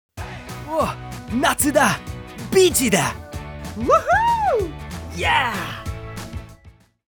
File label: Summer Vacation CM Character Voice Hyper Excited Happy Over the Top